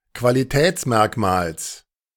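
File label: "Qualitätsmerkmals", German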